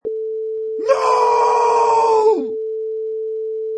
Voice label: Man Screams No!, "No!"